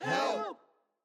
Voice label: audience "help"

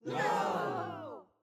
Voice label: audience "no"

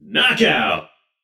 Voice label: "knockout", spry male narrator; soundscrate

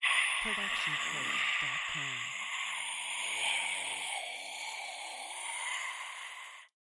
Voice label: Scary Whisper; soundscrate

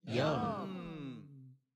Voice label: audience "yum"

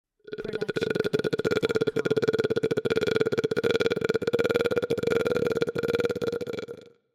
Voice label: horror scary voice; soundscrate

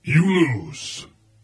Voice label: battle narrator you lose

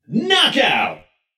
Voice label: "knockout", spry male narrator; soundscrate

